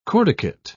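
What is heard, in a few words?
"corticate", British English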